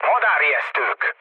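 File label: enemy detected, mood low, Hungarian; War Thunder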